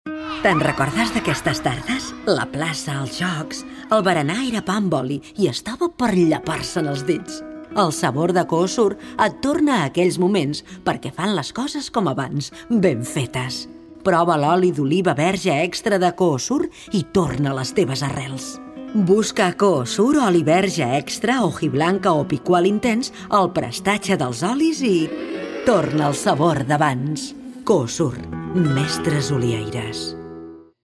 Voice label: Spanish voice over